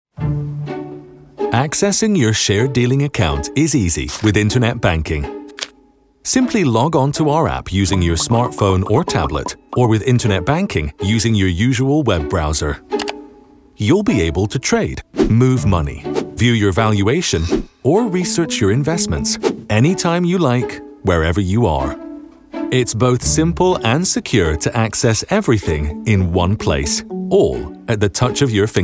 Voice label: english international e-learning